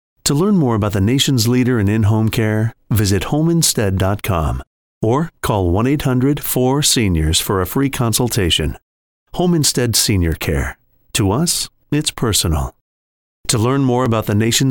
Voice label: Anncr